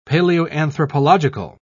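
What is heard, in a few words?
"paleoanthropological", British English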